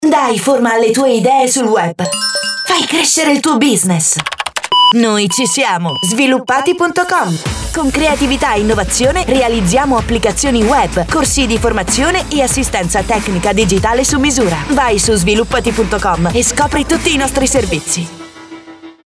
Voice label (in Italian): spot radiofonico